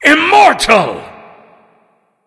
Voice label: immortal, Announcer Killstreak; cstrike